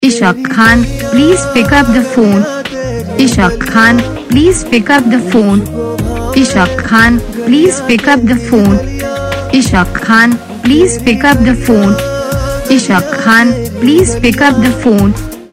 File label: pick up phone saying, Ringtones